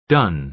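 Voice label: "done", American English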